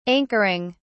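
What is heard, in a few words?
"anchoring", English, British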